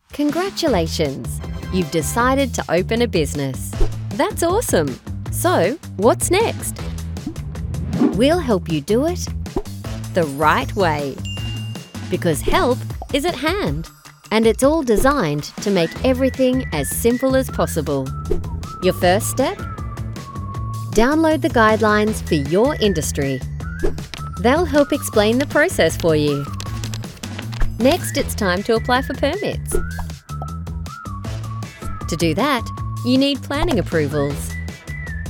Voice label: TVCs

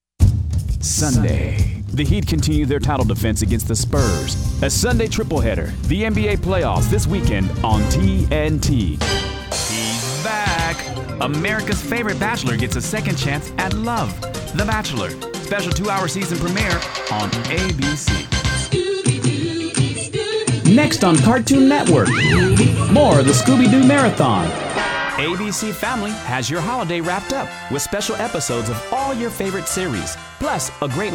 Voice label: Promos

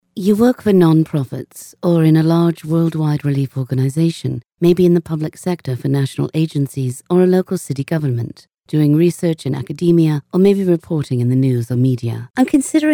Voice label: British

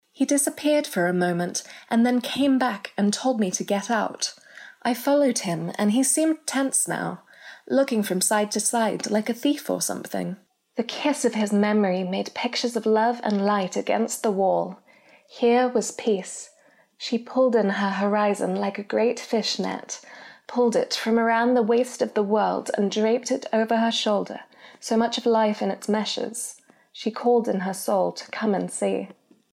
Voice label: Audiobook regular British accent

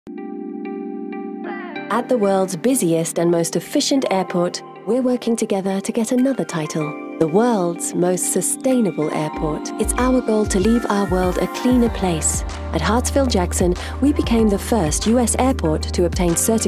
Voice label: Energy Awareness Promo; GreeningATL

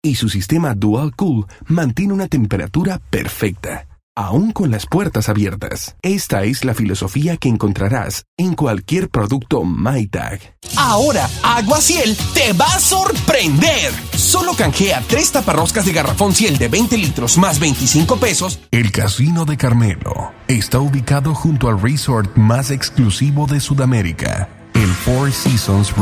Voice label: Spots

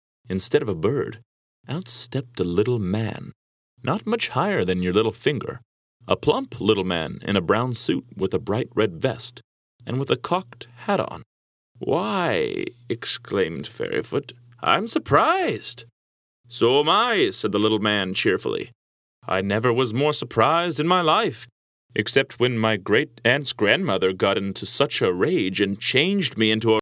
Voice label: Audiobook Story of Prince Fairyfoot

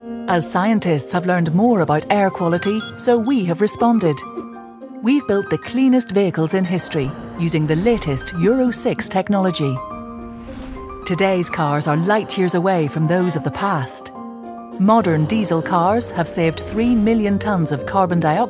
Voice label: Explainer

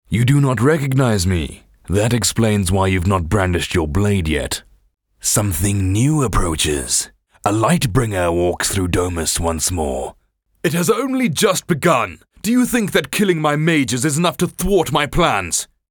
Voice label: Characters Video Games Various

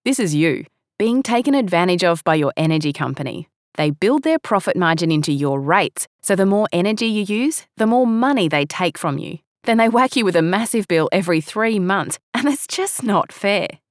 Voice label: Studio Sample De-breathed and processed

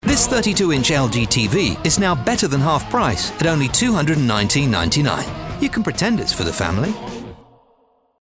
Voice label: Sale TV Ad; Argos

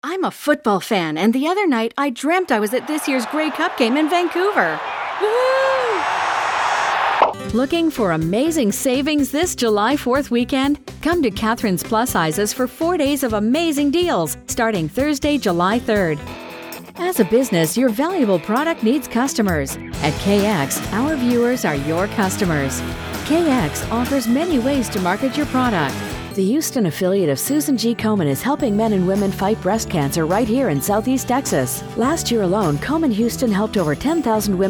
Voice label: Commercials

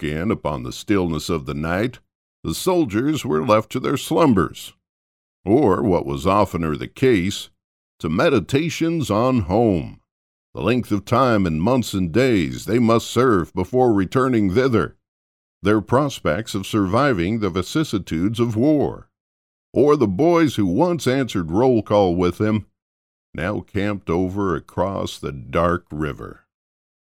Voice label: army narration

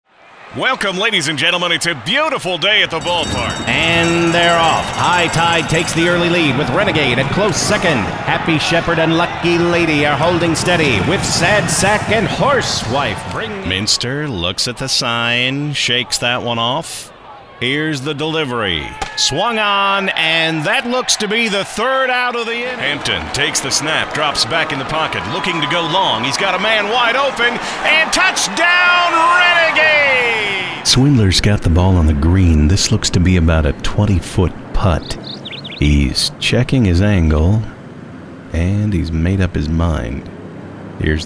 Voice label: Sports Caster

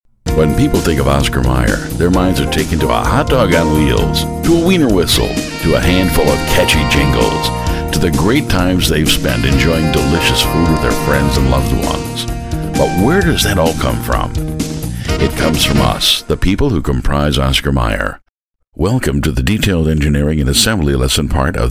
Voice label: Narration Corporate-Training

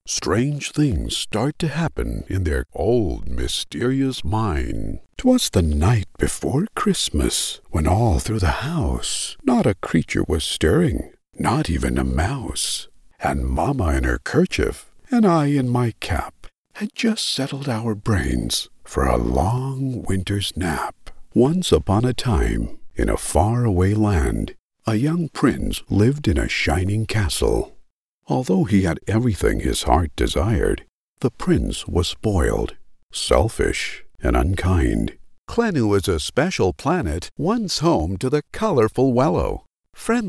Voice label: Children s Story Telling

